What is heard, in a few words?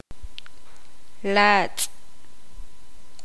poqomam; estrecho